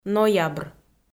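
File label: "ноябр", Komi, female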